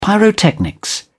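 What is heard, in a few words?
"pyrotechnics", British English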